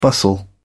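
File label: "bustle", English, British English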